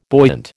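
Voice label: "buoyant", American English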